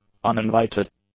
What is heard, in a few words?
"uninvited", English; adjectives